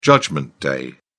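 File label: "judgment day", British English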